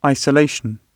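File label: "isolation", British English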